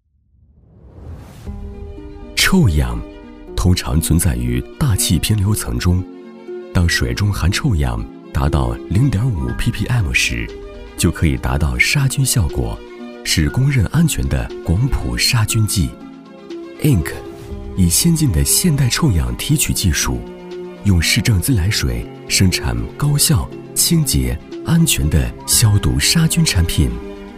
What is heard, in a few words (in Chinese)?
男 宣传片 科技; 企业; 杭州硬客